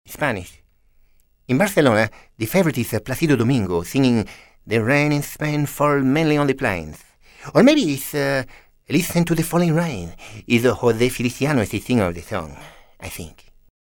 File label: Spanish